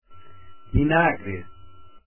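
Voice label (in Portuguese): "vinagre" Portuguese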